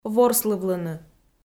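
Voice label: "ворслывлыны", Komi, female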